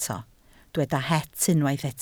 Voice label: "het", Welsh